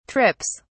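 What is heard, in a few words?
"trips", British English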